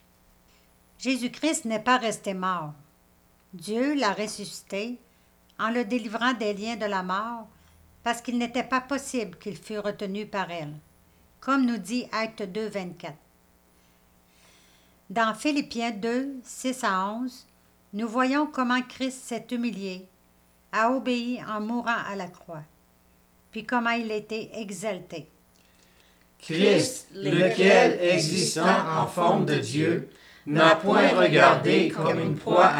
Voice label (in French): narration et lect phil; Cantate : Christ notre Pâque; Pâques